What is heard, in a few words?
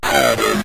saturn, synth voice; Kenney's Sound Pack